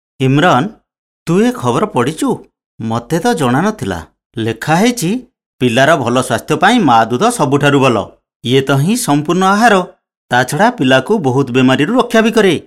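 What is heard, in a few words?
Odia M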